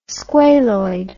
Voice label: "squaloid", english